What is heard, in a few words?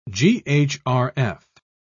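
"ghrf", British English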